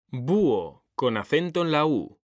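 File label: "búho", Spanish, male; mekanta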